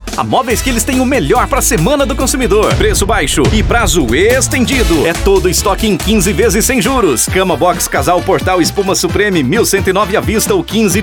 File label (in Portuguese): spot; Móveis Quiles Semana do Consumidor